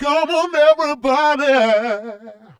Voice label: Male FX Vocals; Houseworx

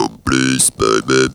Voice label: "please baby", Funky Vocals; USB Soundscan vol.18; AKAI

